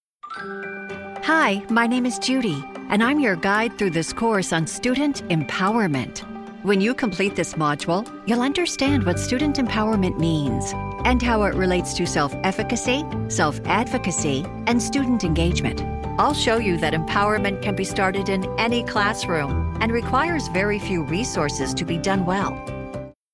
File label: Coversational Elearning